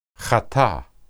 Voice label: hata, hebrew